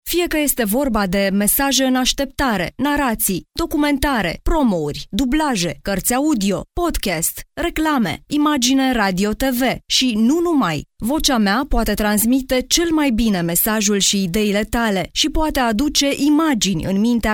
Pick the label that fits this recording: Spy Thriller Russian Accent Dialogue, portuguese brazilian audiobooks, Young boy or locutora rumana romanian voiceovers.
locutora rumana romanian voiceovers